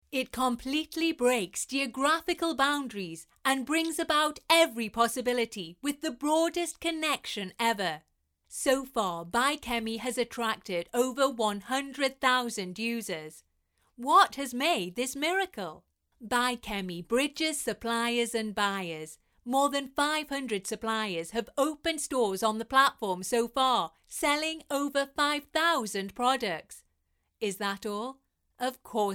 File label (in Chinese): 英文; 百料汇聚